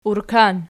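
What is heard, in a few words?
"urkan" nominative, Archi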